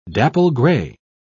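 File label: "dapple-gray", British English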